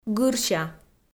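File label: "гырся", Komi, female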